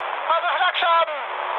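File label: German damaged aa mood high, speech; War Thunder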